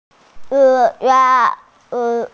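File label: "park", Mandarin Chinese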